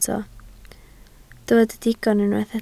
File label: "digon", Welsh